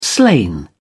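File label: "slain", British English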